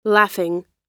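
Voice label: "laughing", American English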